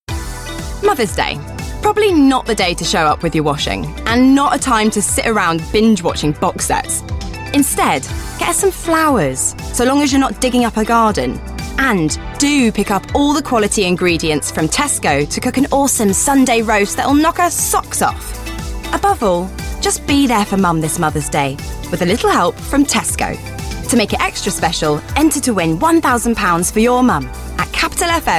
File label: Commercial